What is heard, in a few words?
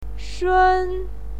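"shun", Mandarin Chinese, first tone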